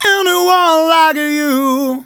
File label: Phrase Male; Vengeance Samples